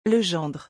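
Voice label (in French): "le gendre", French; vocabulaire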